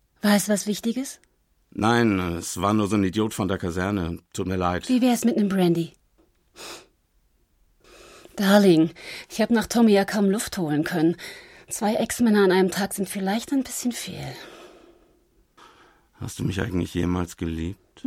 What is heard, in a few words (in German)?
Synchro Realfilm; Sword of Honor